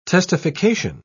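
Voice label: "testification", English, British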